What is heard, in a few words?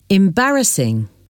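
"embarrassing", English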